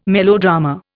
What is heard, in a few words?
"melodrama", Indian